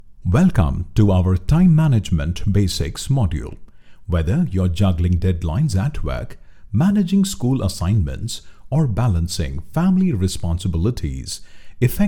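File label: ENGLISH ELEARNING, Neutral male voice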